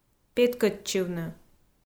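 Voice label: "петкӧдчывны", Komi, female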